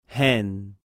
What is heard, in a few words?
"e" "Hen"